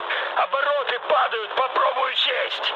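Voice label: Russian damaged engine mood med, speech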